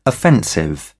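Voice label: "offensive", British English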